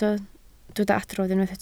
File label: "adrodd", Welsh